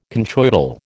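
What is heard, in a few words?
"conchoidal", American English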